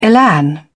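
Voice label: "elan", British English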